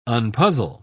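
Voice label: "unpuzzle", British English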